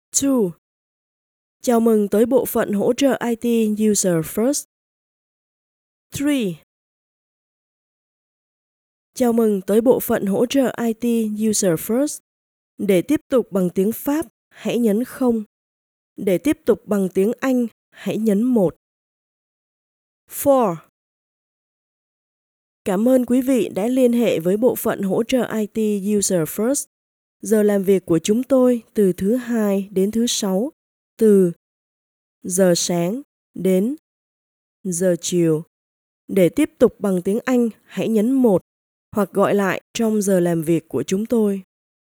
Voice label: vietnamese female